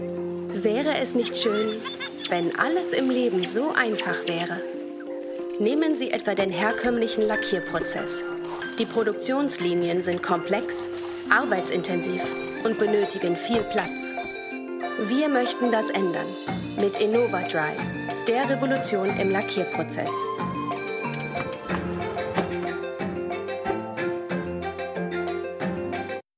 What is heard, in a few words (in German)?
Erklärfilm deutsch; BASF; LOFT VOICES